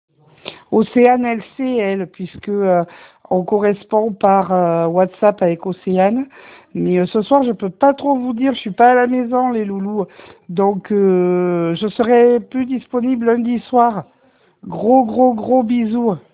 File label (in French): Message vocal